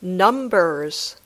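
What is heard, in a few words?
"Numbers", English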